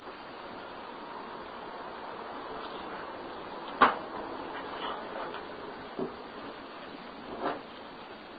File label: Whisper; Shanley7 Blue5